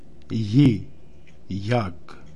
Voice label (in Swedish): "Jag", Romska